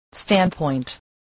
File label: "standpoint", voice